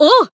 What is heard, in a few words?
voice jp; buria; limitbreak